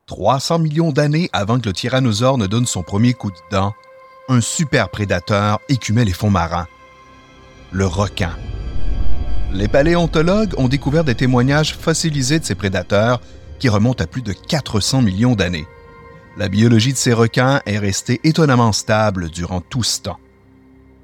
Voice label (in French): Demo Voix; Megalodon